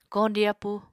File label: "koñdi appú", Apatani